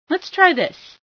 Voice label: lets try this, female sci